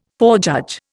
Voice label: "forejudge", Indian